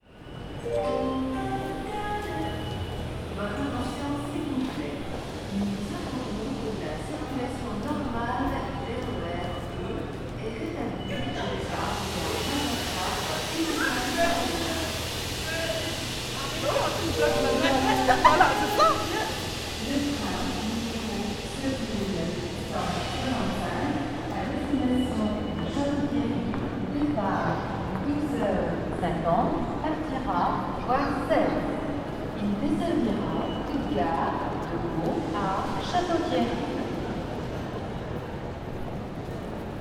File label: Announcement Steps Hall Station Annonce Pas Hall Gare De L Est Paris